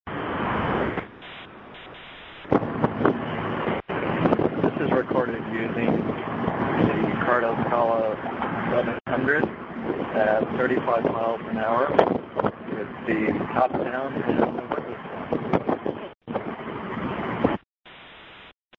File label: Cardo Scala 700 35mph open, cell phone headsets